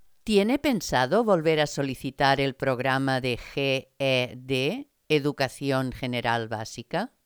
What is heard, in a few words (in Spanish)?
spanish sample